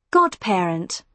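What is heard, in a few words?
"godparent", British English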